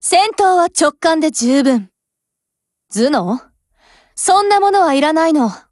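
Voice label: WIN Japanese, Voice; Glock17; GF Alarm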